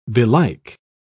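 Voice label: "belike", British English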